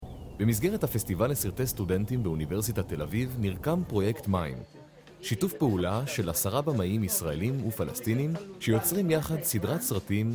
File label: Documentary